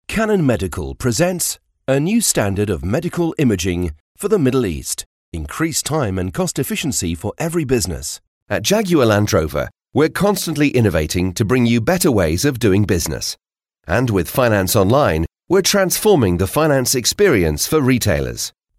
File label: corporate narration